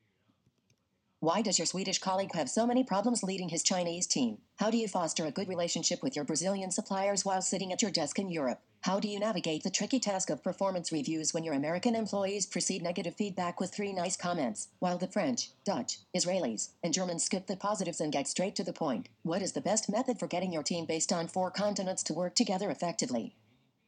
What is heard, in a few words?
US Female